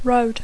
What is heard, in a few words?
"road", English